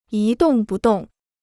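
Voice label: "一动不动", Chinese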